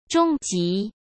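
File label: "中级", Mandarin Chinese; hsk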